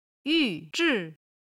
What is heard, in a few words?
"yu4 zhi4", Chinese